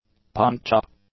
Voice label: "punch-up", English; nouns